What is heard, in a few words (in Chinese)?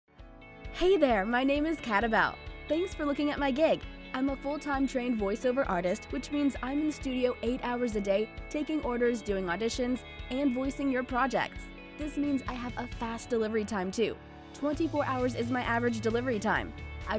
外籍英女 流畅叙述 自我介绍